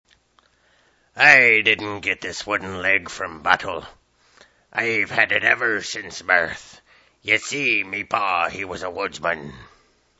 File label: Pirate